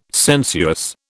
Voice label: "sensuous", British